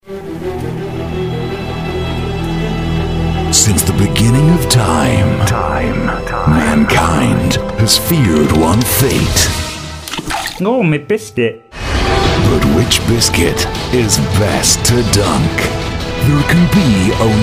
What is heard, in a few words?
promo; the dunk test